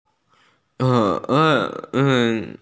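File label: "gei3", Mandarin Chinese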